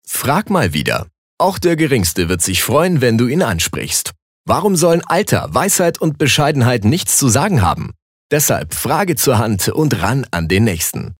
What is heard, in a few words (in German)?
Werbung Dynamisch